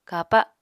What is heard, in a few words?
"kapa", Apatani